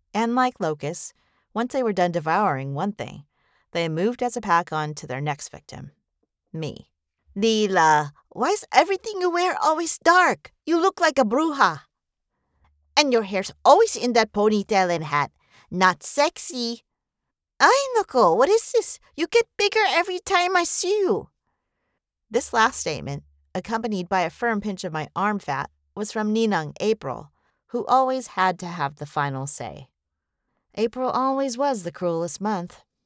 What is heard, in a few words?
Filipino Accent Characters